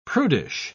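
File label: "prudish", British English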